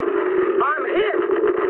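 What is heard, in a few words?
aircraft destroyed mood high, speech English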